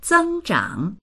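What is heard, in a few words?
"zēngzhǎng" Mandarin Chinese; 增长; 成長する、増大する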